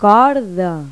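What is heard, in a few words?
"cuerda", Spanish; Pipo-Aprende musica con Pipo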